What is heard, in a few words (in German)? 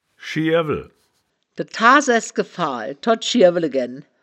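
"schierwel", wittlicher woerterbuch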